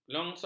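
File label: "kelongsong", Malay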